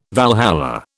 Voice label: "Valhalla", British

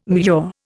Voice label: "mure", Indian

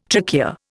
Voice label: "trachea", Indian

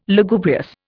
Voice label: "Lugubrious", Indian

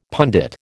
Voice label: "pundit", American

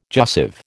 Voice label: "jussive", American

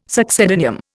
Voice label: "succedaneum", Indian

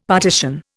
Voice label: "partition", Indian; Dictionary

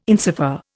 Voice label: "insofar", Indian

